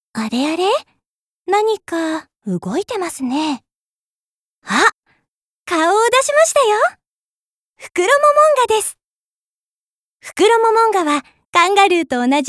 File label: Narration